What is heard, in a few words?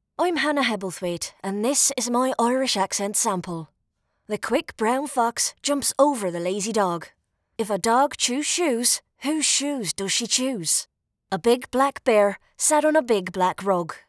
Irish Accent